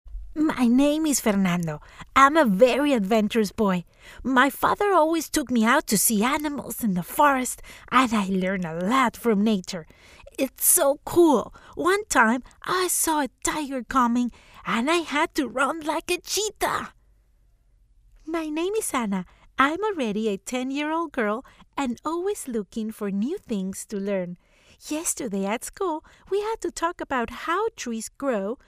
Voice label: latin american voiceover child voice english accent; English child voices. Latin American accent; Recordings of children voiceovers